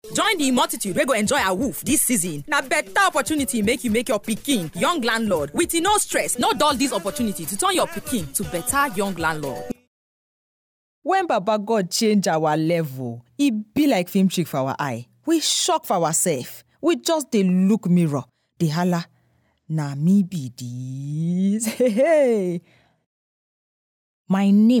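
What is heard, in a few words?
Pidgin, voice over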